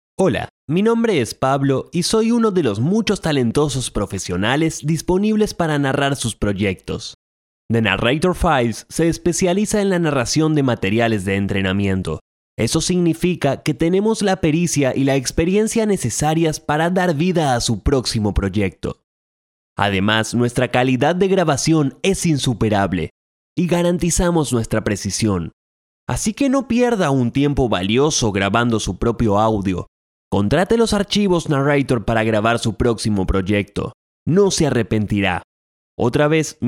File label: neutral; Male Talent